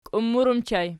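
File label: "kiummur" ergative plural, Archi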